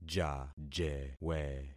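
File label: "jajewe", concatenated syllables; Affixing experiment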